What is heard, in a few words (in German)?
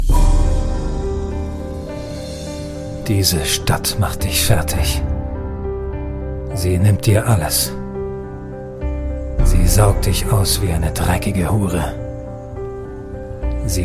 Trailer Film; Am Abgrund Der Nacht